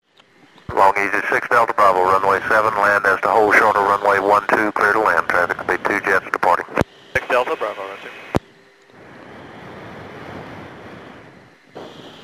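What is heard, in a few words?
Landing Exchange Tower, radio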